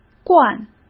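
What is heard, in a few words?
"惯", Mandarin Chinese; chinese flashcards; hsk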